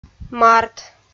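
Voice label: "март", Komi, female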